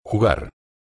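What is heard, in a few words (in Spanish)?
"jugar", español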